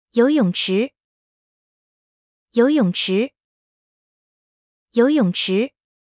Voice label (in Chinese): "遊泳池", Mandarin; Traditional Chinese